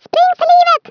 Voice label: Runaway, Speech, Swedish; 11khz; International

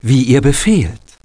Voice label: priest Move, german

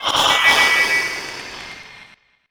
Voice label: VOICEFX TEKNO 140, Techno, Voice

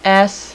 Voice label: "s" female, alphabet; Web Clip Empire 150,000

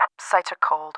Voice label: lost, English

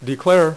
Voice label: "declare", dealer's speech; PowerPOKER Demo

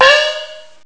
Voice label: chinese

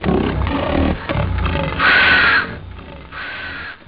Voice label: unhappy, speech; OctaMED SoundStudio V1

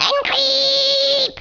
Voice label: "Fire", Danish

